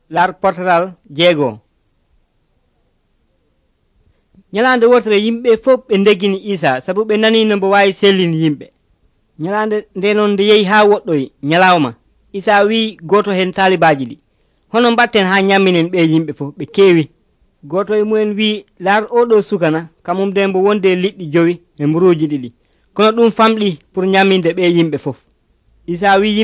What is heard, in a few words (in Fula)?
pulaar fulacunda, drama